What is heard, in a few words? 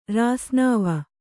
"ರಾಸ್ನಾವ", Kannada